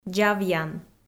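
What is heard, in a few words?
"дзавъян", Komi, female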